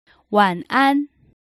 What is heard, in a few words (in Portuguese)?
boa noite em chines despedida